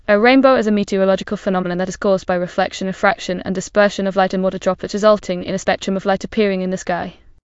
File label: speaker, en GB; piper voices; vctk